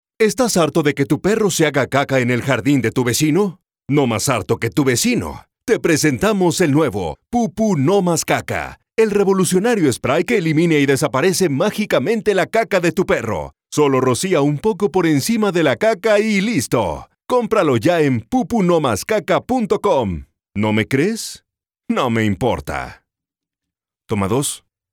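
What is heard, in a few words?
Latin American Spanish, commercial; GoLocalise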